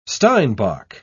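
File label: "steinbock", British English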